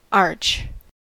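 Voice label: "arch", US English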